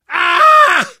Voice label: fall short, survivor voice